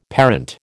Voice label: "parent", American